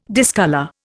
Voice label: "discolour", Indian

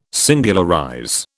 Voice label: "singularize", British

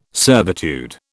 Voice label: "servitude", British

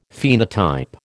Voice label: "phenotype", American

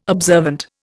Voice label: "observant", Indian

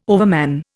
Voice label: "overman", Indian

